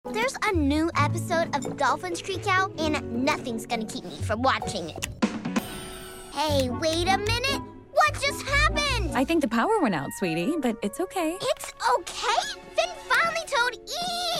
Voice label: VO Animation

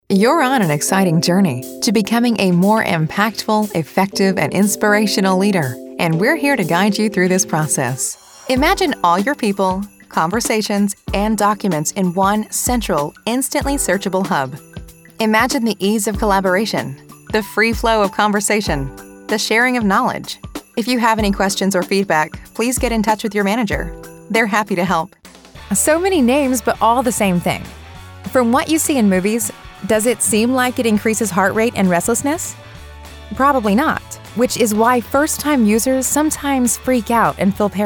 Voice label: Narration eLearning

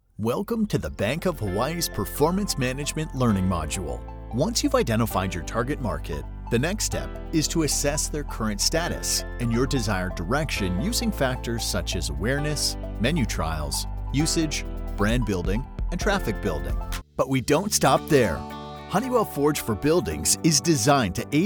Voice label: Elearning

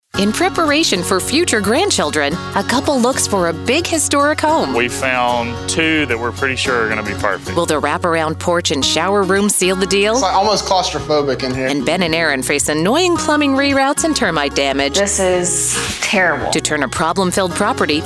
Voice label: Promo

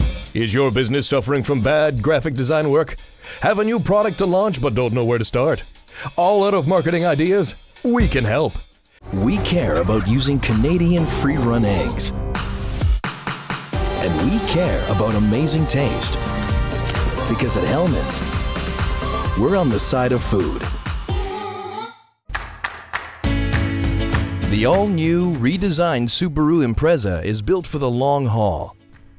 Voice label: voice over

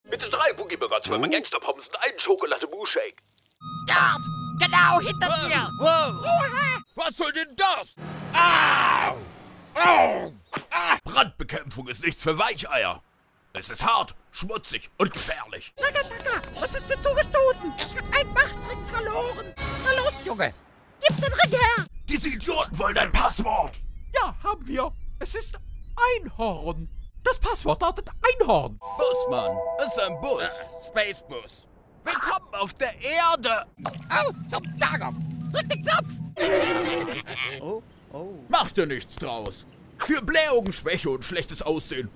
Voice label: cartoon

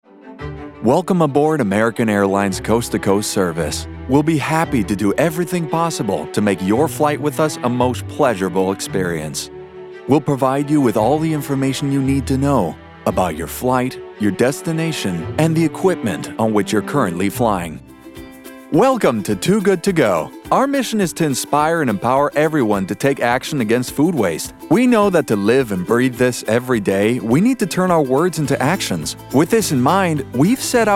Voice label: Corporate

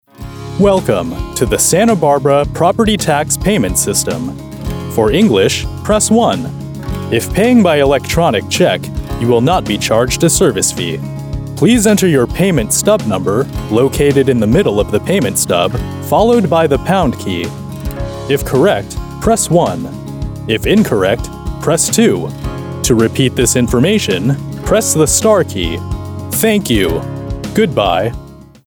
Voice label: Corporate IVR Telephony

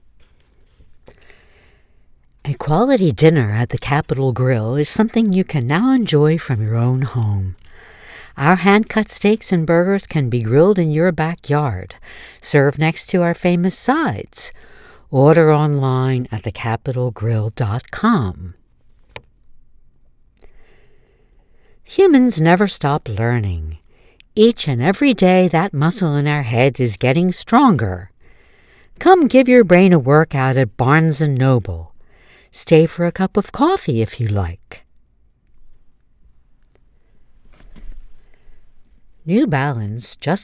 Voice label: Commercial Demo

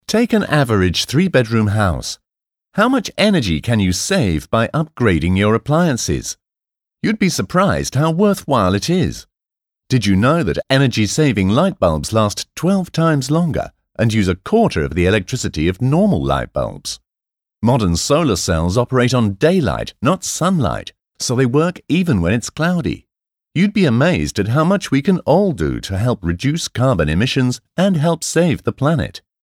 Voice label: International English

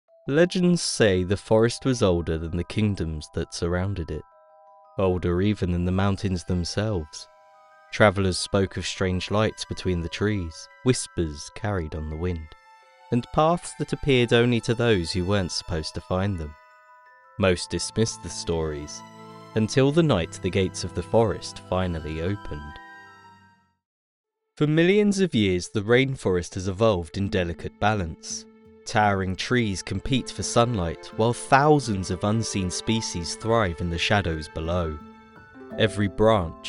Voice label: Narration

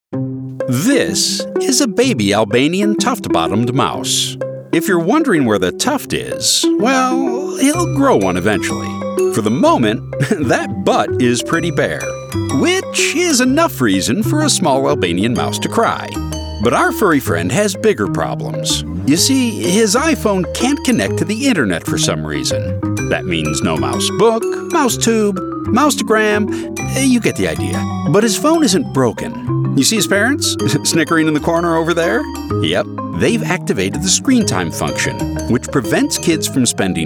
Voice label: Explainer

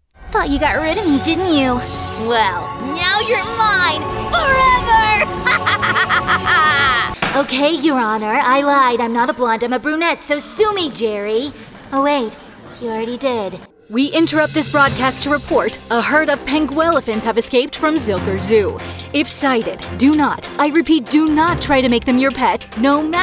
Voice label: animation

